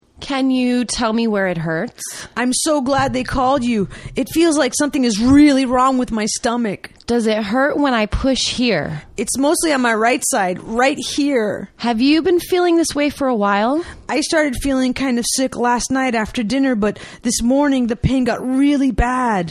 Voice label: Conversation A Medical Emergency